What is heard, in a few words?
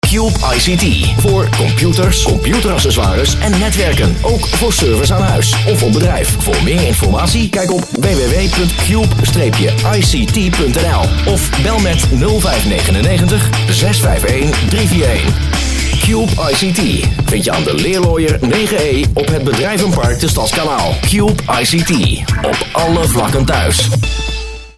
Commercial; Cube ICT